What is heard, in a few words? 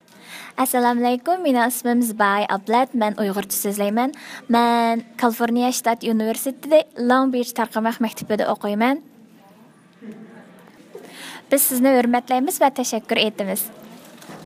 Uyghur